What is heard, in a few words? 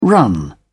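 "run on", British English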